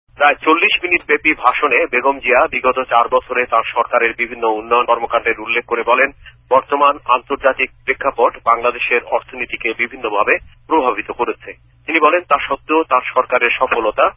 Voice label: bangla speech; bd pm